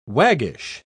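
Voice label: "waggish", British English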